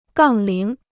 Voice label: "杠铃", Chinese